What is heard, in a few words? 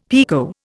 "pekoe", Indian; Dictionary